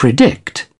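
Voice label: "predict", British English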